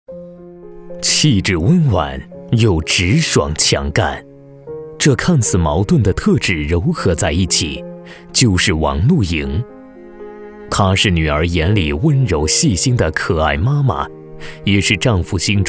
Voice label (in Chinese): 男 人物介绍 专题